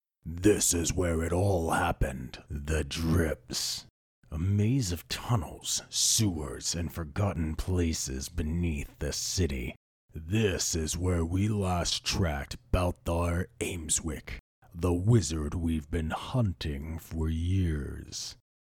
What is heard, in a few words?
Malevolent wizard with arcane menace, sophisticated evil, and dark magical authority, Audiobook Villain